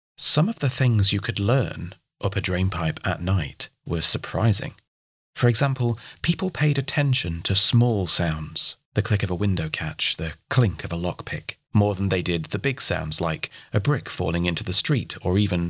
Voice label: narrative reel